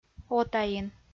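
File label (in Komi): "отаин", Komi, female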